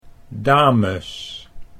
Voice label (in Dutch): "dames", Dutch